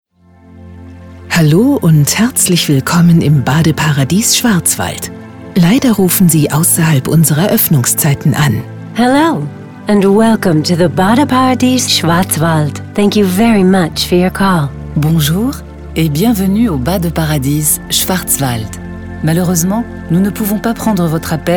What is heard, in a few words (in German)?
telefonansagen; badeparadies schwarzwald